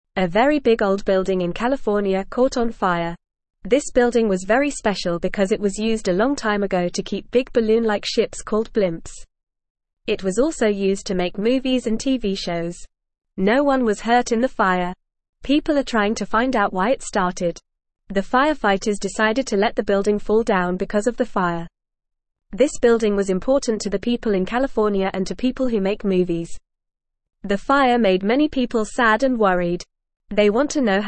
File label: English Newsroom Beginner FAST Reading; Big Old Building in California Catches Fire